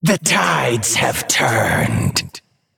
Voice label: Male Naga Play